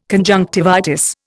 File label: "conjunctivitis", Indian